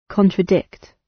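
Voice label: "contradict", British English; Language, linguistics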